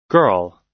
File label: "girl", us